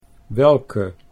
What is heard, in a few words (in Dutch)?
"welke", Dutch